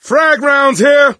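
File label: explosive ammo, male; Left 4 Dead 2; coach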